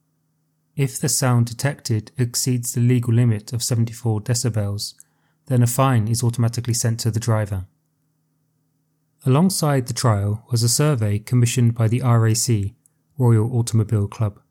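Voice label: advanced c1 noise cameras audio, EFL & ESL Listening; UK trial modified cameras to end noisy vehicles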